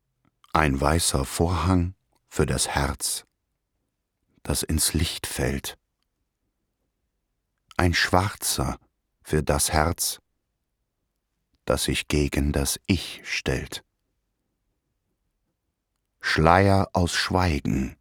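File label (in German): gedicht, deutsch männer; sprecher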